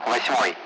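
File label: Speech, Russian, 8th; Allied Flight; DCS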